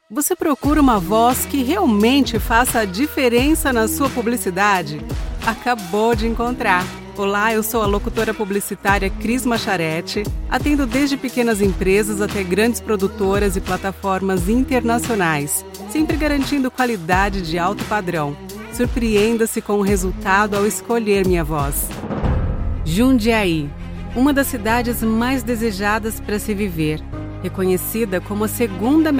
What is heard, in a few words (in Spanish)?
corporativo